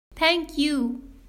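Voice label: "thank you", English; grade1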